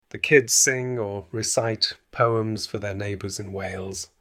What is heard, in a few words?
The kids sing or recite poems for their neighbors in Wales, Welsh; superstições de ano novo em inglês